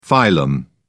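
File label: "phylum", British English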